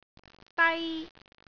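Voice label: "dai1", Cantonese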